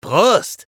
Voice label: vo chatter positive; eisen; frag